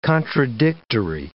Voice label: "contradictory", English

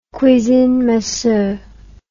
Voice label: "cuisine minceur", english

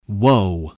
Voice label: "woe", voice, english

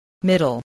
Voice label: "middle", voice, spelling

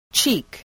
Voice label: "cheek", English, voice

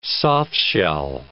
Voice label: "softshell", English

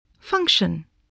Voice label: "function", voice, English

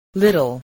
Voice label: "little", spelling

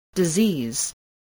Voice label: "disease", english spelling

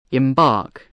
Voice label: "embark", spelling

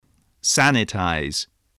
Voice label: "sanitize", English spelling

